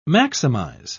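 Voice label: "maximise", British English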